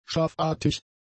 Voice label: "schafartig", german; adjectives